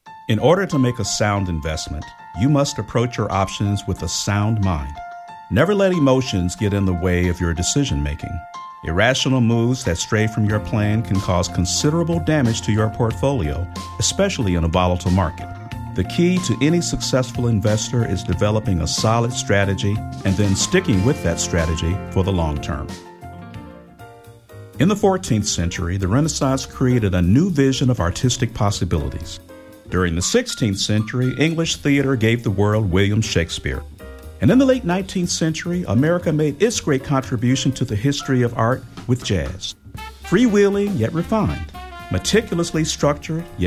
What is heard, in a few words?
Narration